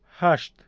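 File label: "hasht", Tajik, male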